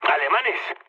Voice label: enemy detected mood low; War Thunder